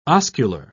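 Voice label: "oscular", British English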